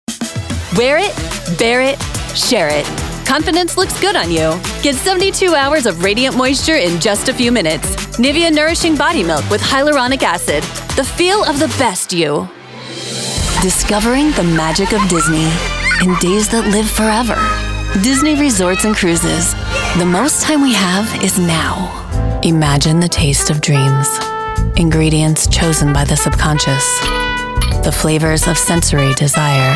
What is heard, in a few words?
Commercial; Commercial Coaching Voiceover Training as a Foundation